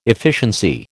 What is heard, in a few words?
"efficiency", American